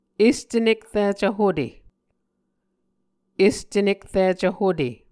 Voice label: "este nekricv hute", Muscogee